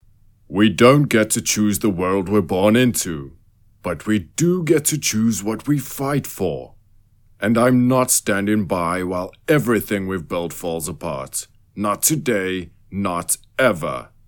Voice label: Character Hero Leader